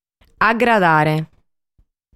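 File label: "Agradare", Sardinian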